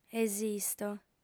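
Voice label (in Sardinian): "esisto", female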